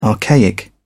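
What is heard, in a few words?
"archaic", British English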